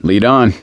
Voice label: lead on, voice; gambler